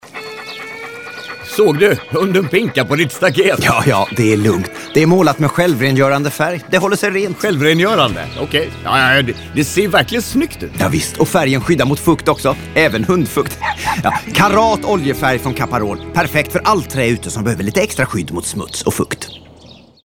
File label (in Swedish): radioreklam hunden; caparol